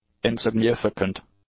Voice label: "insignificant", English; adjectives